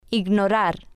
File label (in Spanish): "Ignorar", spanish